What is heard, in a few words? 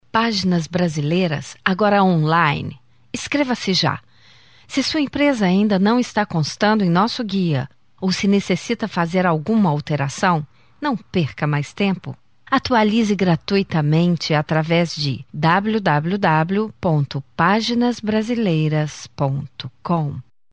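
Portuguese Brazil, female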